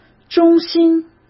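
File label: "衷心", Mandarin Chinese; hsk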